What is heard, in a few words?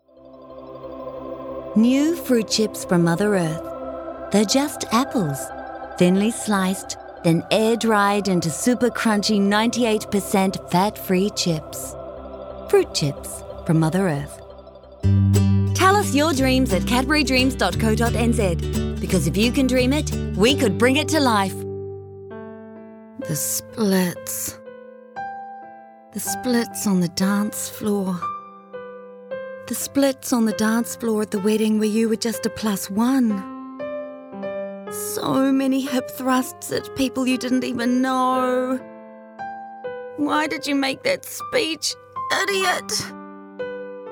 Voice Actor; BigMouthVoices